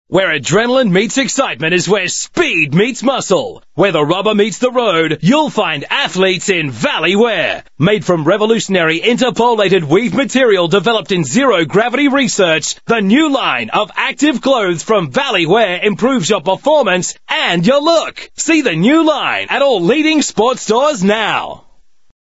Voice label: Australian English Clean Sample, English Australian Male